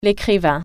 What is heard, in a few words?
"writer", French